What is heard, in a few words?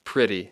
"pretty", English; Speech Pro